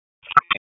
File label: Police Tac; Raleigh; Spec Ops; wake